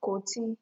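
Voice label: "koti", Nigerian Pidgin, female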